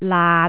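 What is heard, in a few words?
"laat6", Jyutping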